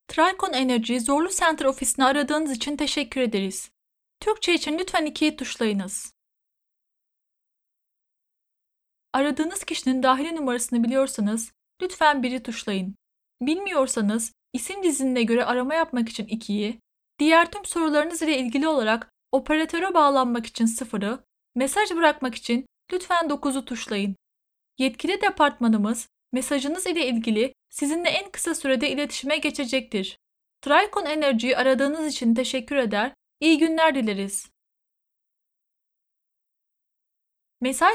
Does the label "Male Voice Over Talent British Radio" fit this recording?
No